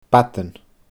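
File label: "badan", Scottish Gaelic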